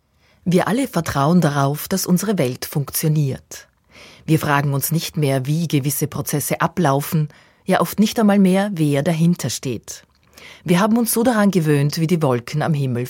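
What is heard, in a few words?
german Austrian female narration; voice demos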